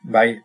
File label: yes, basque